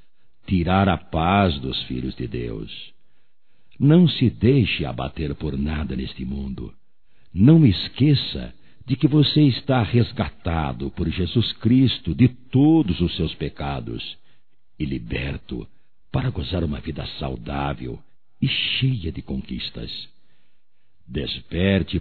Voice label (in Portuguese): FORÇA E OTIMISMO - F; Telemensagens 2017